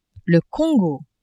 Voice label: "Congo", French; pays mineurs